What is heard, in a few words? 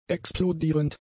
"explodierend", German; adjectives